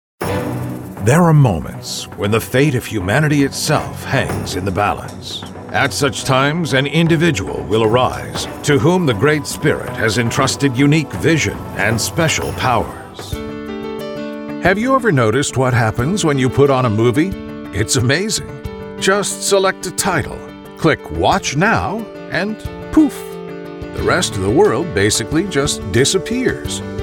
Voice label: Commercial